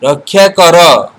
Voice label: help, odia